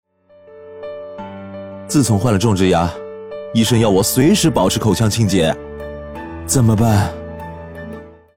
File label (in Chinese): 样音广告人物; 美国洁碧冲牙器